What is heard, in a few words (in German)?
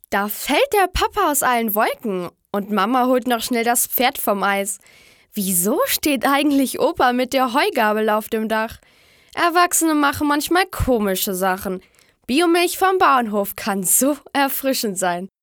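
Mädchen; Biomilch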